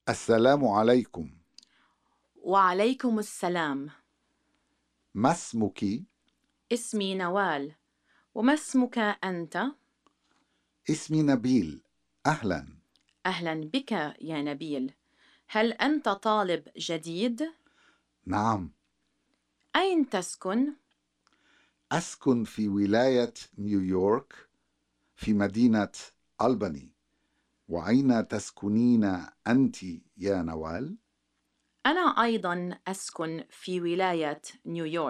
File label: Conversation; SALAMAAT